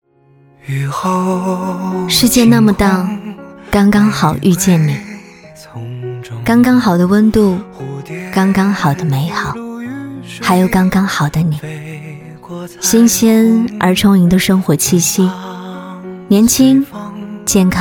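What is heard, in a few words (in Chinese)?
旁白 女 公众号情感旁白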